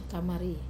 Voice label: "kamari", Wamesa; basement